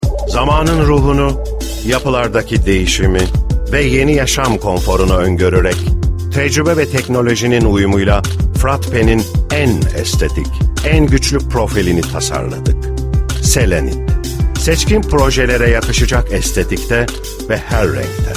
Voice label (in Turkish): reklam kurumsal; firatpen